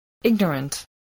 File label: "ignorant", voice spelling